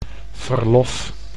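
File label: "verlof", Dutch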